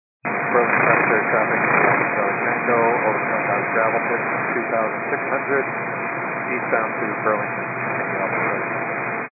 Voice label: 122 925MHz, scanner radio